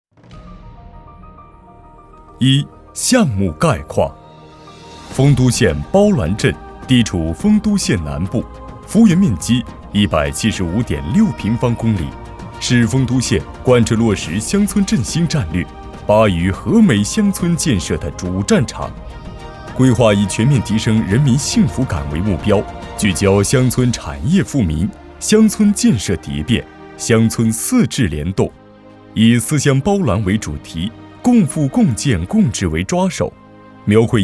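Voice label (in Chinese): 男宣传 大气; 丰都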